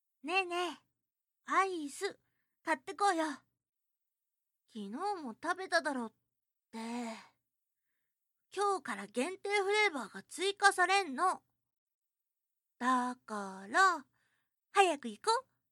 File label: voice girl